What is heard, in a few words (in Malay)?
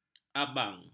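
"abang", Malay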